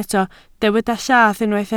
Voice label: "llath", Welsh